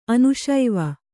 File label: "ಅನುಶೈವ", Kannada